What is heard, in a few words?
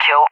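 "kill" English, speech; DCS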